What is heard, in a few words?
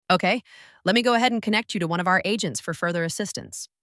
connect to agent prompt; 11labs